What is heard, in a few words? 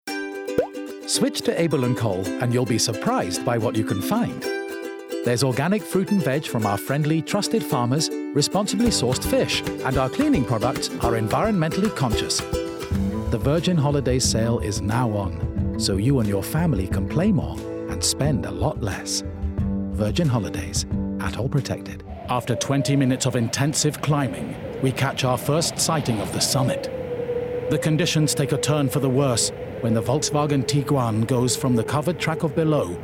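commercials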